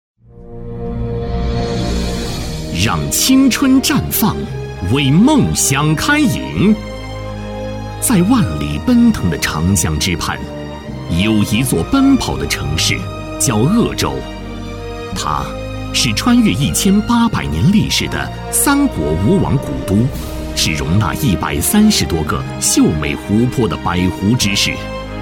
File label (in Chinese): 男, 专题, 中小学; 鄂州市中小学